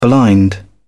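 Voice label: "blind", British English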